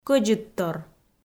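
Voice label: "кӧдзыдтор", Komi-Zyrian, female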